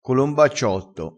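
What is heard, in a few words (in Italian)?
"colombacciotto", dialettale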